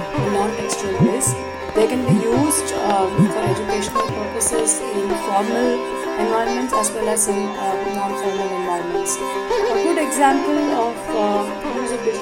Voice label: Audio file with background music added